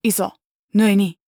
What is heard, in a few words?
neutral short